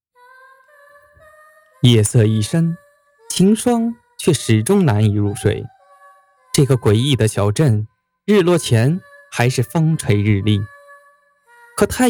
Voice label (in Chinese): 悬疑恐怖类